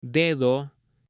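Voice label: "dedo", Spanish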